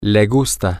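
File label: she likes, Spanish, male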